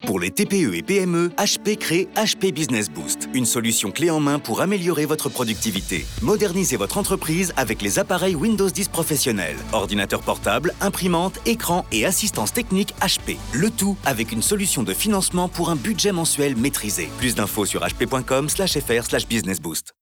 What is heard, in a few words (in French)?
Spot Radio; OMNICOM; HP